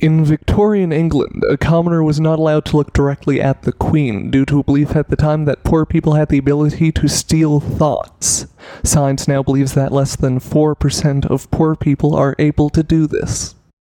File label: Unprocessed Vocals